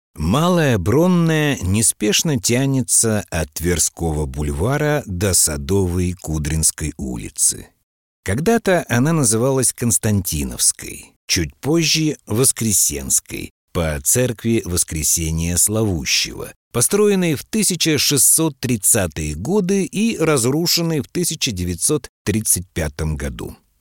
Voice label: audiogid; профессиональных дикторов для аудиогида; Moskva

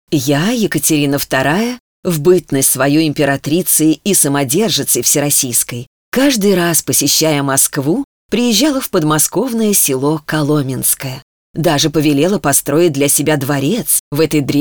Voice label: audiogid; профессиональных дикторов для аудиогида; Ekaterina II

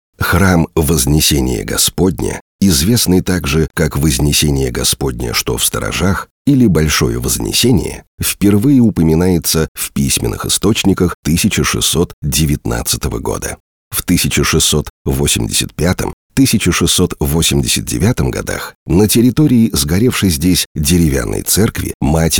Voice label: Hram Vozneseniya audiogid; профессиональных дикторов для аудиогида

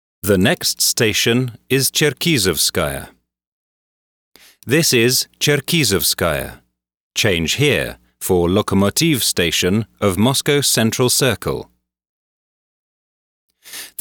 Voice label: Metro Moskvy golosovoe obyavlenie; Метро Москвы; профессиональных дикторов для записи голосовых объявлений

